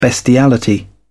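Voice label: "bestiality", British English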